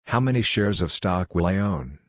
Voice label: cinema dialog